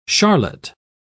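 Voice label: "charlotte", British English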